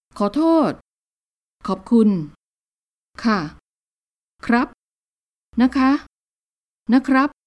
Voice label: female male, thai